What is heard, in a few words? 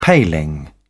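"paling", British English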